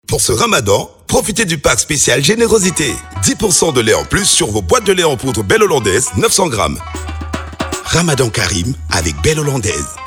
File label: spot radio promo congo